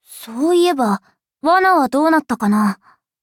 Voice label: upgrade, ja; cookie run